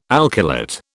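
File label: "alkylate", British English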